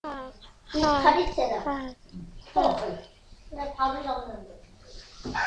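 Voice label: "hold", spoken word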